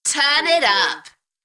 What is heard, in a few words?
UK female, Turn it up; beanstalk audio